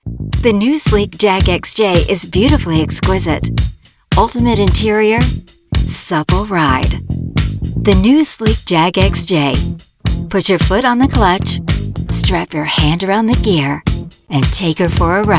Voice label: Sleek Commercial